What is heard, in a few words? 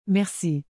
"merci", French; Canada; (thank you)